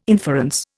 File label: "inference", Indian